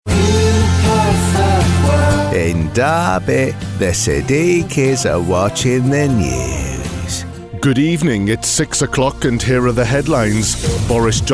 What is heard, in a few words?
Impressions; voiceover; impressionist